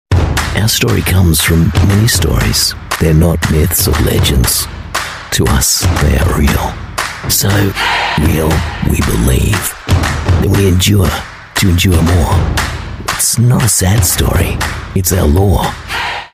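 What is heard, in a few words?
Australian English sports; Nike Sports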